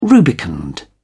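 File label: "rubicund", English, British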